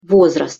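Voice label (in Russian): "vozrast", Russian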